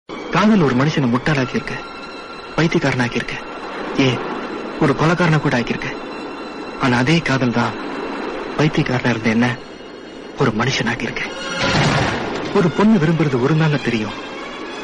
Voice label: dialogue tamil; love today; vijay

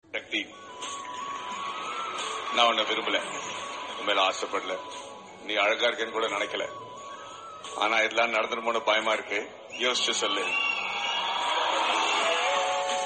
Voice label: dialogue tamil

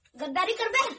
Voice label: funny meme dialogue

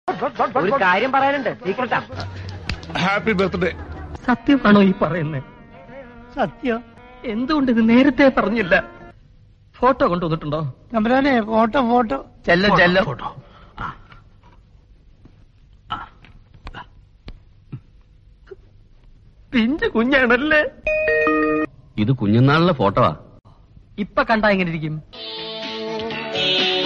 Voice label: happy birthday malayalam dialogue

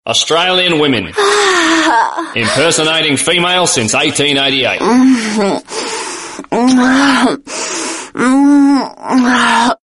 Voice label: australian woman

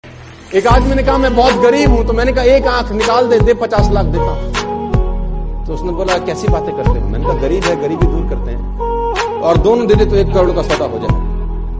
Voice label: motivational hindi; sonu sharma

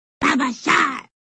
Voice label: sms voice sms

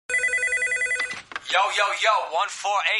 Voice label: voicemail message tone; jesse pinkman

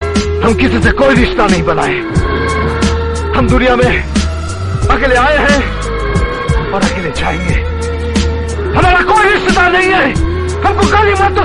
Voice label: hindi movie dialogue; mithun chakraborty

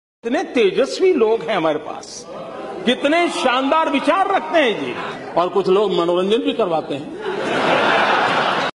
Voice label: comedy

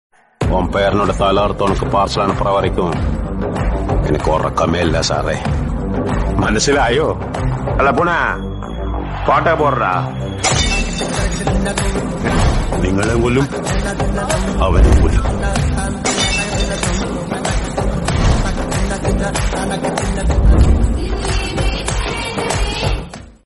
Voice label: tamil dialogue; jailer; varman

